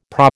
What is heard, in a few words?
"PRA", American